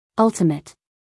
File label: "ultimate", us female